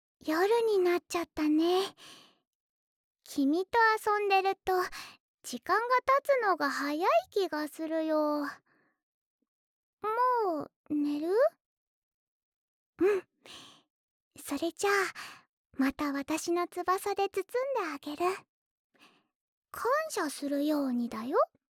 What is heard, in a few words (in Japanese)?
シチュエーションボイス; セフィ